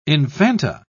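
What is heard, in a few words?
"infanta", British English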